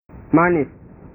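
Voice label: "manis", Indonesian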